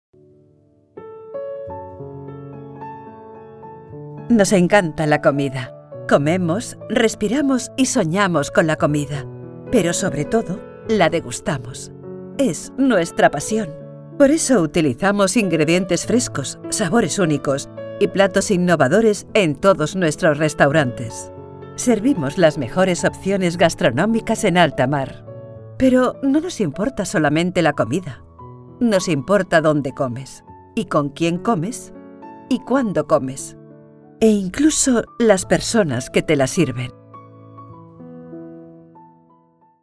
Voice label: COMMERCIAL VIDEO